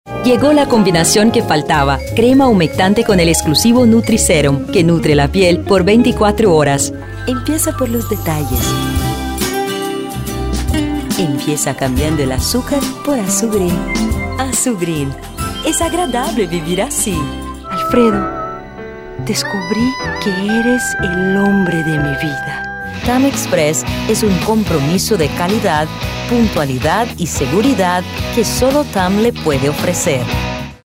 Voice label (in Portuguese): ESPANHOL